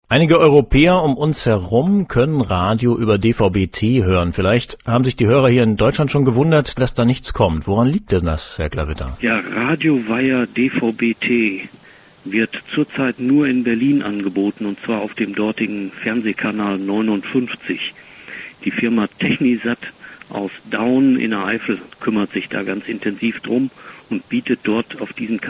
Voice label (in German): interview; radio guide hoer zu